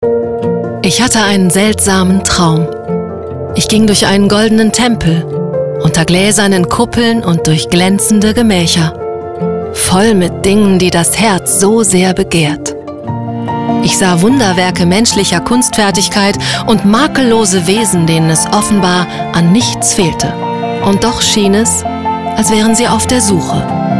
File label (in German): Werbung Reise; LOFT VOICES